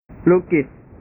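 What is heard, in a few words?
"lukis", Indonesian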